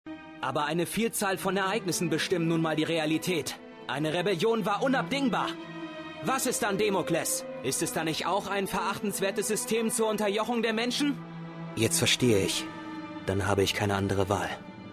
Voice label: hoerspiel

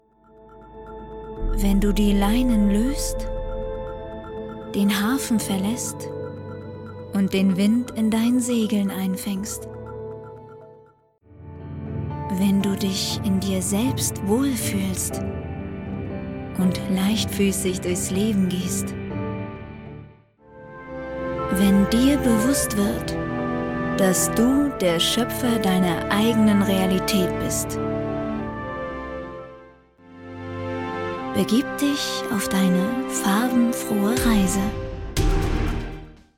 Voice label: Audioguide; Außergewöhnlich leben; LOFT VOICES

